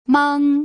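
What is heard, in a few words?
"meng", Putonghua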